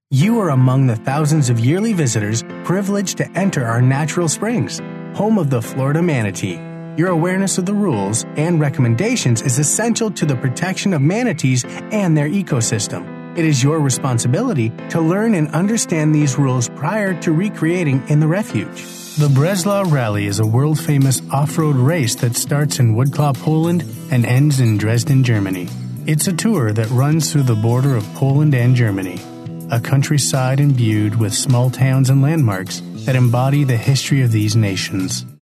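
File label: US English, male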